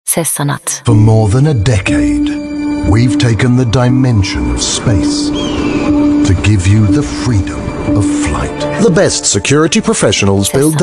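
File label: English British, British English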